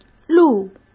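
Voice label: "录", Mandarin Chinese; chinese flashcards; hsk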